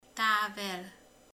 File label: "főz", romani